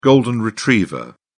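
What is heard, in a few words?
"golden retriever", British English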